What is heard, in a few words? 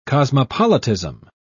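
"cosmopolitism", British English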